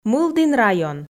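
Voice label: "мылдін район", Komi, female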